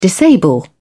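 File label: "disable", British English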